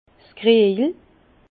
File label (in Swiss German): "kriejel", Bas Rhin; pichet